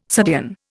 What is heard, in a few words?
"subdean", Indian